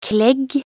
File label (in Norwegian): "klegg", nb_NO